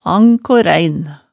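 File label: "ankor-ein", Hemsedal dialect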